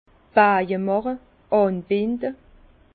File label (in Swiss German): "bajemache", Bas Rhin; lier les vignes; vin